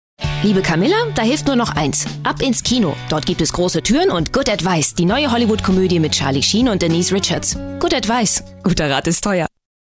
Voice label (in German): Funkspot